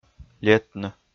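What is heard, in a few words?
"летны", Komi, male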